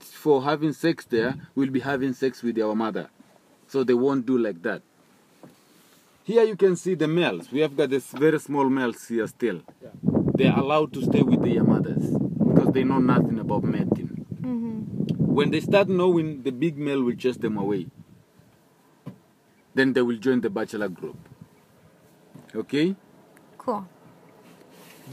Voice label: Plane Announcement in Swahili; somewhere over Tanzania